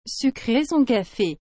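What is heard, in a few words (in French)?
"sucrer son café", French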